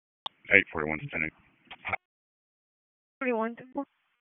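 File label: Sheriff's Office Dispatch; WSO DISP 1; wake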